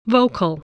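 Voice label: "vocal", English word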